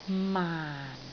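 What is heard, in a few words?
"maan4", Cantonese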